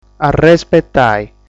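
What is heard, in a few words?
"Arrespetai", Sardinian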